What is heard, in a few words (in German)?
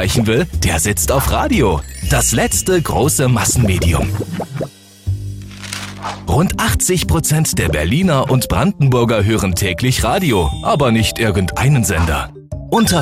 Werbung Dynamisch